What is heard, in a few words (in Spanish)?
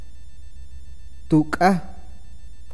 "cuerno", awakateko